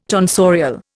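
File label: "tonsure", Indian; Dictionary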